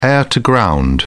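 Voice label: "air to ground", British English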